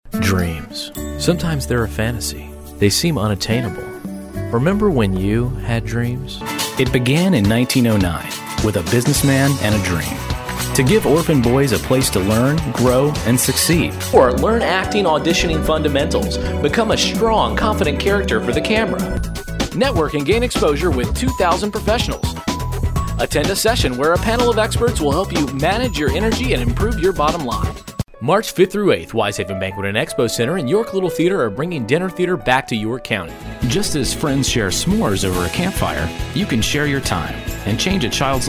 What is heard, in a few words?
30s Male Commercial